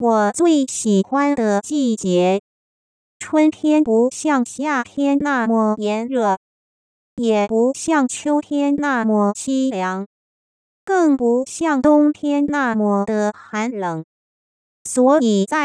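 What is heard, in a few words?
Synthetic Speech, Mandarin singing voice synthesis, Using spectrum-progression model and HNM, Pitch: 220Hz; Avg. syllable duration: 330ms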